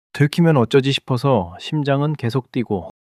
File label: fluster; female